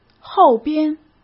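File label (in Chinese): "后边", Mandarin; chinese-flashcards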